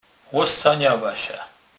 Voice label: "loscenela", kurpiow

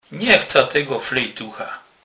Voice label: "flejtuch", kurpiow

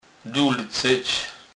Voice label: "dulcec", kurpiow

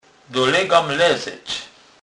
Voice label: "dolegem", kurpiow

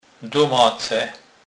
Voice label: "domace", kurpiow

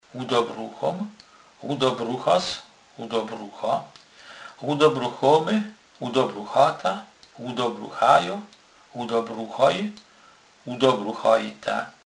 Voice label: "ludobruchac", kurpiow

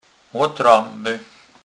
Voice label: "lotreby", kurpiow

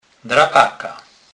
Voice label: "drapaka", kurpiow

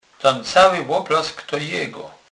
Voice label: "loplask", kurpiow

